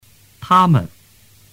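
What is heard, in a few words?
"tamen", Mandarin Chinese; tu moi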